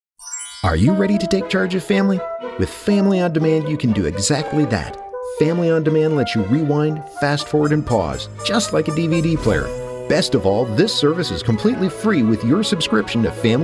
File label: Promos